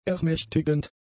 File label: "ermächtigend", German; adjectives